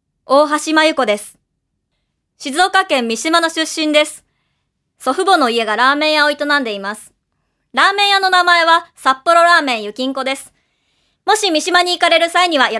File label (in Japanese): free talk, female voice